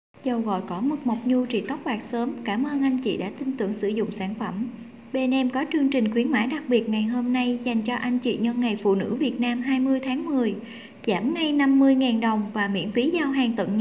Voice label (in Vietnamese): quangcao; demo nuoc quangcao ban le